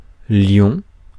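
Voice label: "lion", French; Mots francais phonemes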